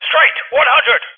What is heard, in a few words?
Vocal Straight; Rally Champ